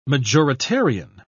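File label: "majoritarian", British English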